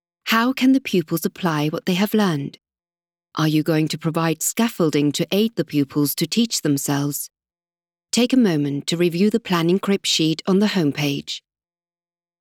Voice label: english british e-learning

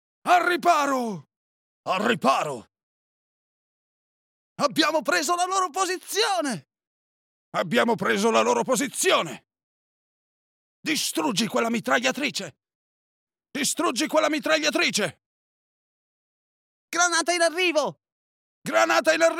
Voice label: italian video games

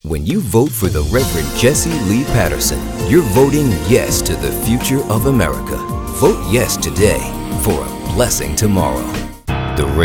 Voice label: english american political ads

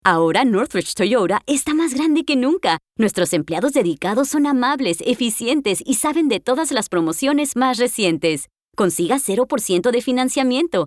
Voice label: spanish latin american automotive; Atriz de dublagem mexicana-americana

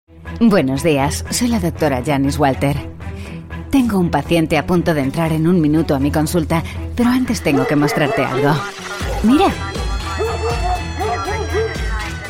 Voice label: spanish video games; Europäische spanische Sprecherin

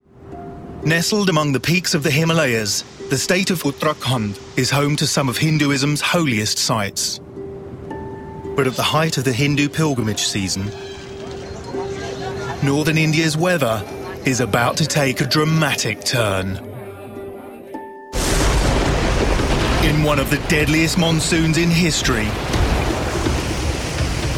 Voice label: english british documentaries

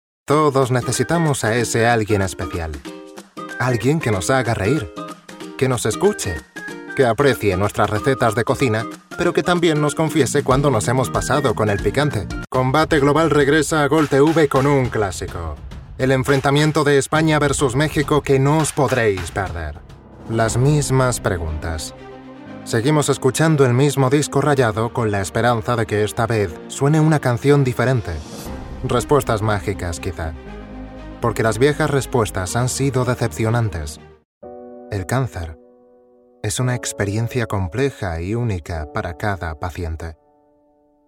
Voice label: spanish commercial

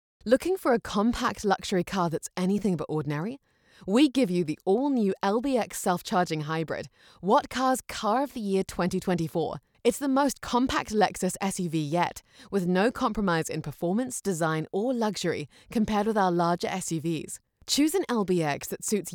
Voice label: english international automotive

